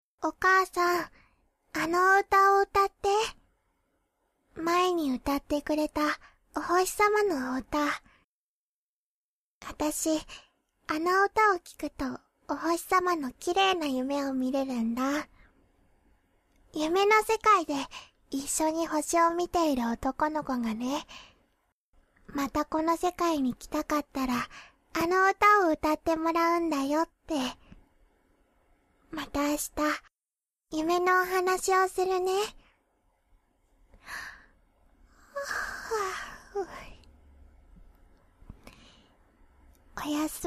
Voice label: little girl; 幼女; まったりおねむロリ